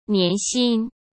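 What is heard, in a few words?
"年薪", Mandarin Chinese; hsk; chinese-flashcards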